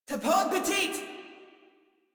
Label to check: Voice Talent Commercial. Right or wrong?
Wrong